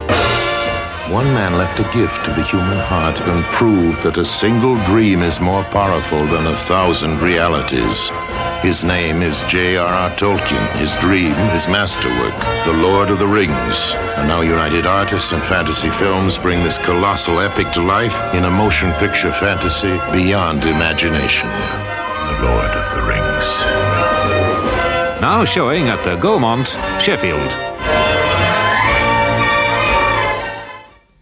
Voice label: Radio Advert; Radio Hallam; Lord Of The Rings - Gaumont

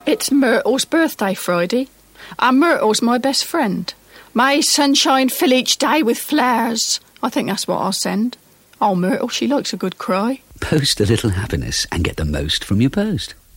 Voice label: Radio Hallam Advert; Royal Mail

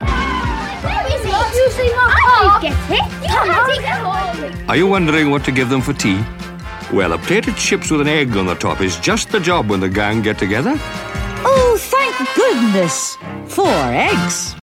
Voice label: Radio Hallam Advert; Eggs; Egg and Chips

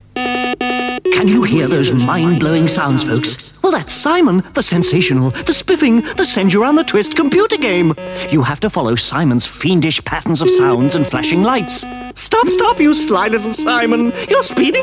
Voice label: Radio Hallam Advert; Simon Computer Game

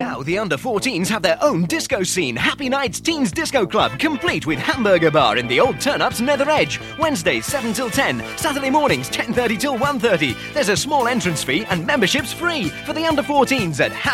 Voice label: Radio Advert; Radio Hallam; Happy Nights Teen Disco

